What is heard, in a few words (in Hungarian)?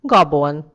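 "gabon", Hungarian; gcompris; geography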